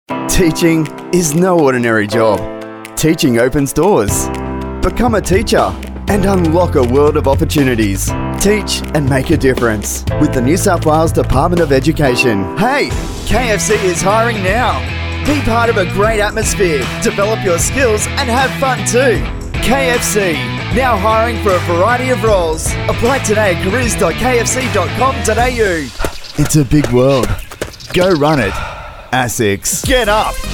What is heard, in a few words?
Male Talent